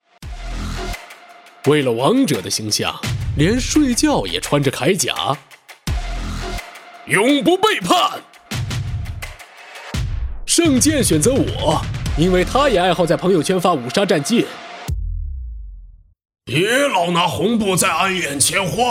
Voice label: 游戏角色样音

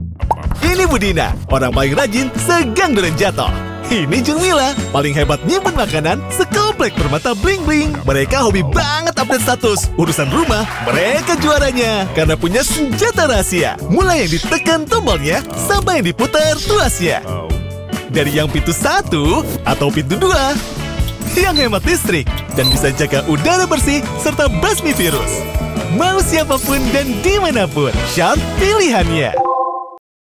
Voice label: 年轻 愉快

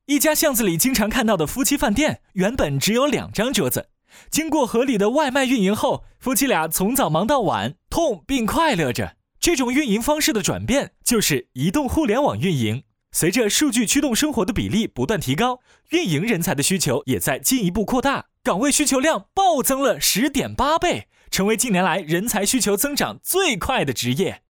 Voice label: 互联网运营广告

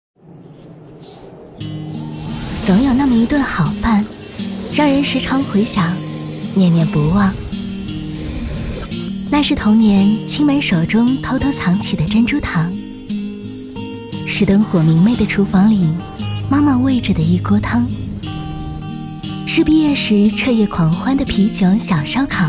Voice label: 轻松走心

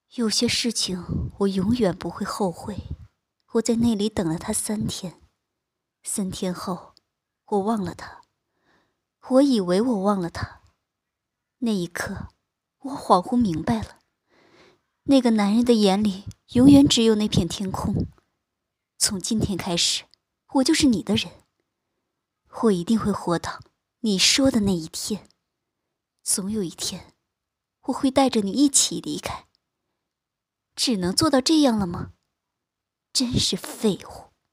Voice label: 少女音

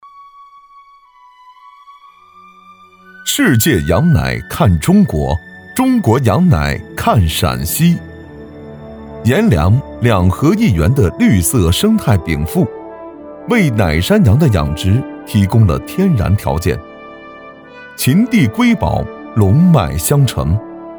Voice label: 大气深沉; 卡倍多羊奶

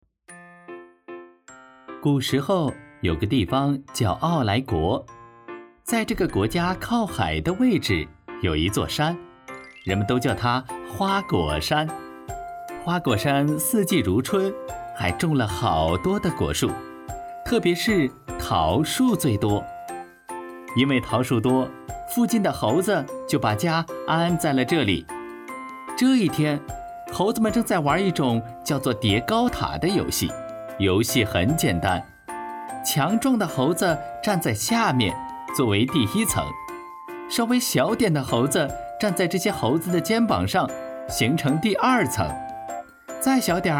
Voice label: 有声读物展示, 男

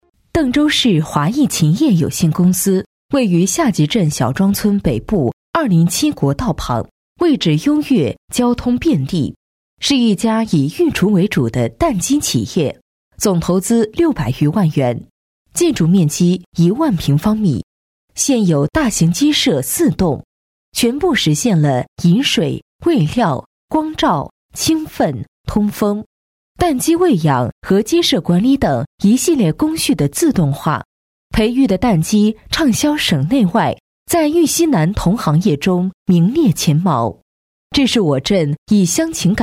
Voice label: 宣传企业; 邓州

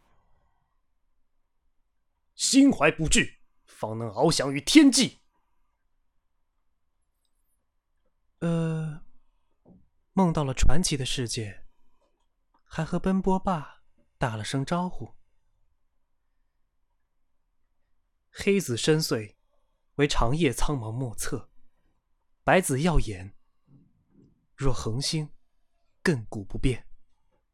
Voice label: 游戏 配音 示例

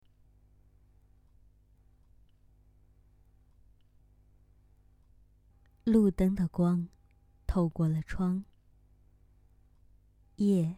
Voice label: 晚安电台中文女播

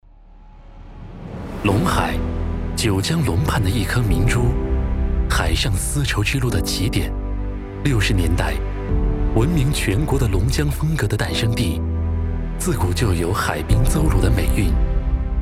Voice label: 专题 沉稳

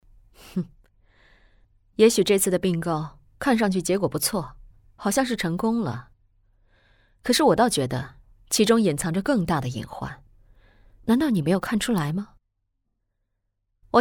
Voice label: 独立 干练 职业女性

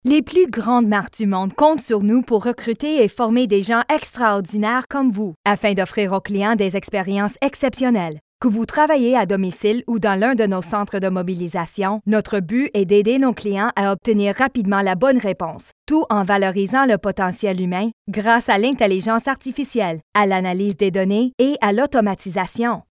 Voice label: 外籍法语女大气激情; 法国; 加拿大; 法兰西

